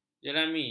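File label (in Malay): "jerami", Malay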